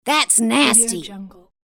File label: female thats nasty